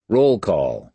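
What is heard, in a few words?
"roll-call", American English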